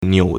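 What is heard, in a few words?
"niu3", Mandarin Chinese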